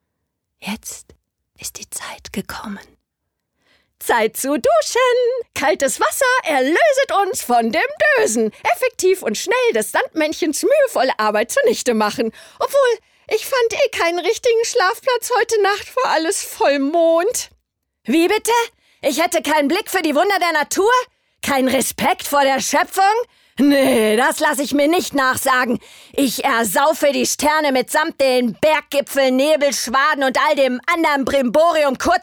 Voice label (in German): Schauspiel Variabel